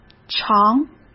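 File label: "肠", Mandarin Chinese; hsk; chinese-flashcards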